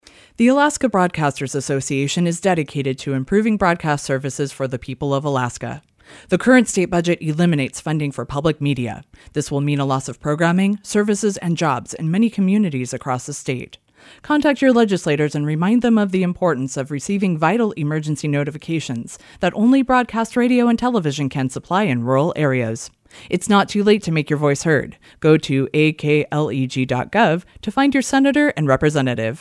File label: PSA